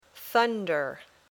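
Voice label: "thunder", English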